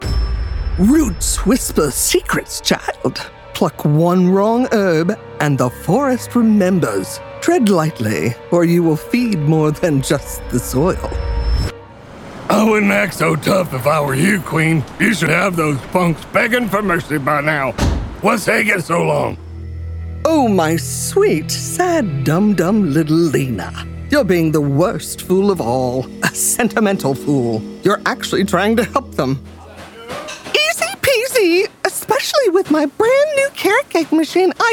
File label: Animation